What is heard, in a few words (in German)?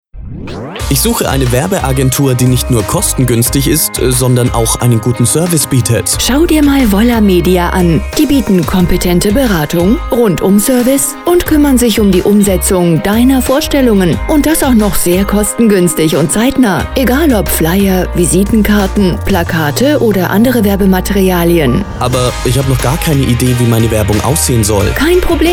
Werbespot